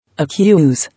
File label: "Accuse" English